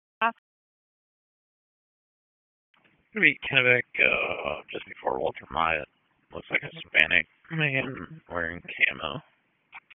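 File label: Dispatch 1, P25; WSO DISP 1; Wake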